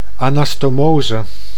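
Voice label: "anastomose", Dutch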